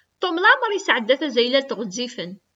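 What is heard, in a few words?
"Tom laɛmeṛ yesɛedda tazaylat ɣezzifen.", Kabyle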